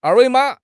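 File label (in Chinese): "er4 wei2 ma3", Chinese; 汉语口语新时代-初级下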